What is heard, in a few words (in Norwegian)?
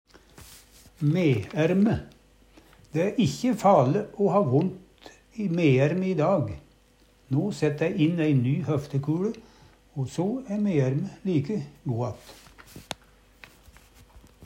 meaerme, Numedalsmål